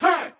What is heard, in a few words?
"hey" group of men; ooh ahh